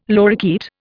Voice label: "lorikeet", Indian